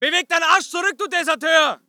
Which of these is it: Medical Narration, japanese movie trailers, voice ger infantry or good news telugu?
voice ger infantry